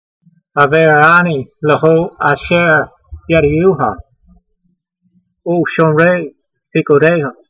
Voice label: voice, hebrew language; Psalm 119:63; havayr a'ni le'hol-a'sheyr ye'r(d)ay'ooha, oolshomray peekudeyha